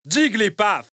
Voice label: Italian; Jigglypuff